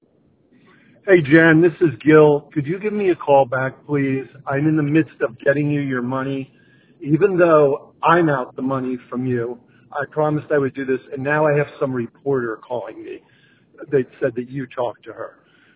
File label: voicemail